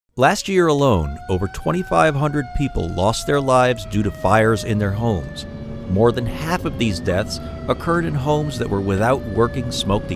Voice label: radio PSA